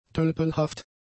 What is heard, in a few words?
"tölpelhaft", German; adjectives